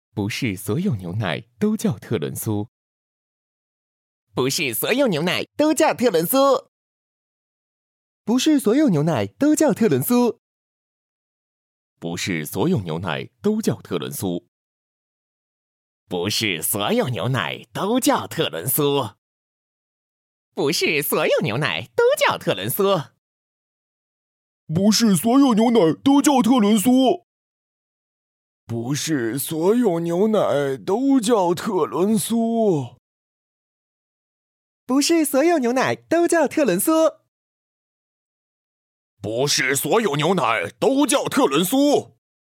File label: Acting